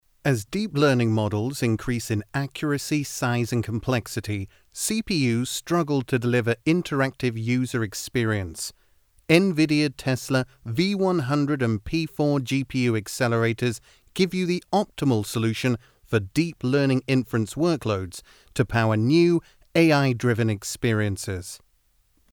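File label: English UK Male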